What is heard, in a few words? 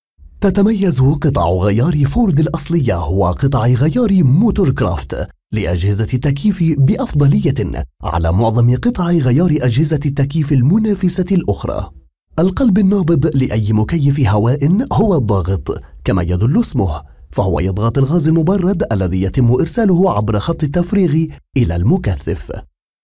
Arabic Male